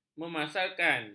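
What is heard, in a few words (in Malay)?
"memasarkan", Malay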